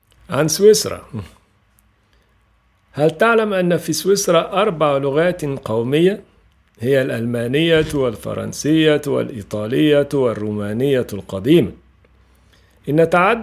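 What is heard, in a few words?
Arabic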